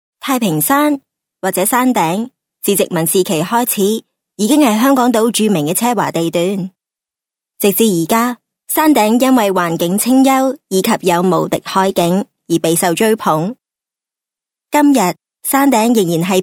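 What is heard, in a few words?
Cantonese female corporate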